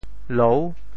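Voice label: "lou6", Teochew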